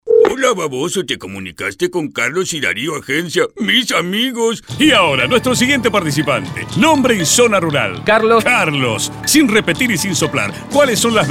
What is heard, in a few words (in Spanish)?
Reel Locuciones